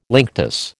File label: "linctus", American